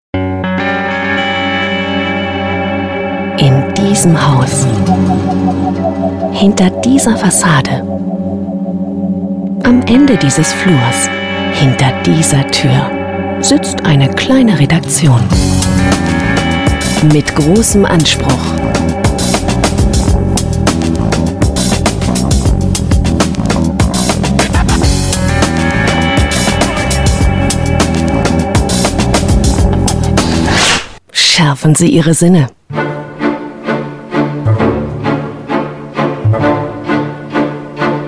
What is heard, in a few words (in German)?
Imagefilm